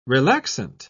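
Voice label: "relaxant", British English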